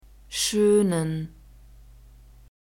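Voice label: "schönen", German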